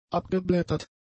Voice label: "abgeblättert", German; adjectives